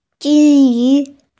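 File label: "goldfish", Mandarin, hearing-impaired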